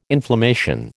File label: "inflammation", American